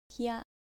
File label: "hya", japonstina